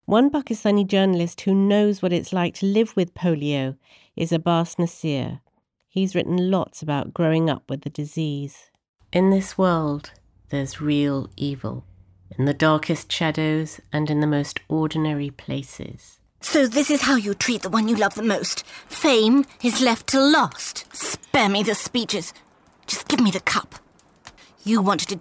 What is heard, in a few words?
English